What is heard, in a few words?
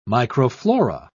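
"microflora", British English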